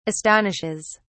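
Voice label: "astonishes", British English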